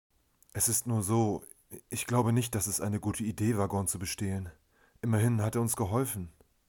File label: German Sample